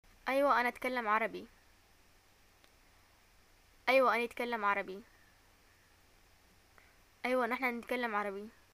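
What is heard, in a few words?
i speak arabic, Yemeni Arabic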